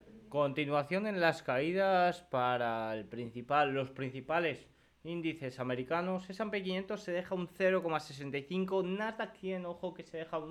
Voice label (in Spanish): radio; en las grandes tecnologicas meintras el russell con ligeros rebotes